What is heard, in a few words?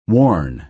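"warn", American English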